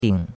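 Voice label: "ding3", Chinese; Cours-de-Chinois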